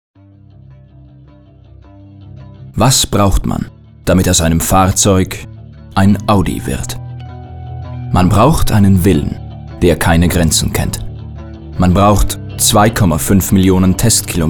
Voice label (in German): Werbung; audi